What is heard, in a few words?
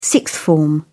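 "sixth form", British English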